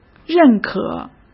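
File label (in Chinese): "认可", Mandarin Chinese; hsk; chinese-flashcards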